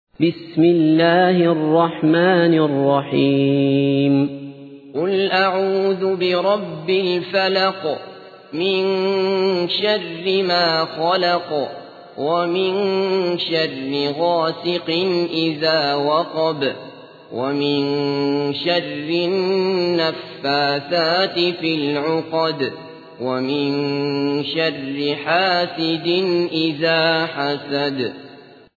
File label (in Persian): ترتیل سوره فلق; عبدالله بصفر